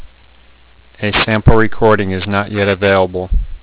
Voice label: vhf voice; marine